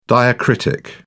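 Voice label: "diacritic", British English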